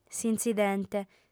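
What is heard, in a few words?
"s'intzidente", Sardinian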